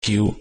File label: hakka numbers